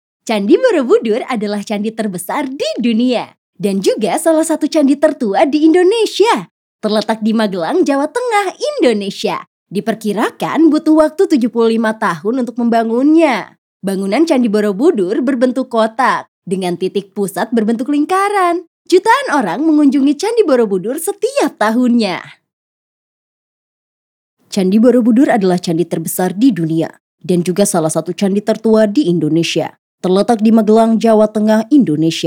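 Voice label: narration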